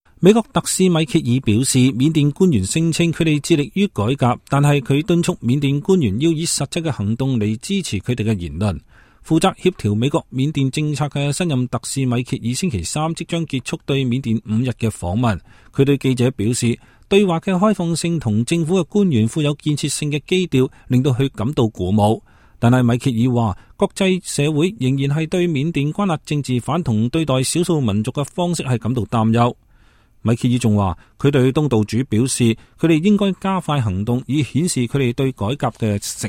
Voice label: cantonese; Burma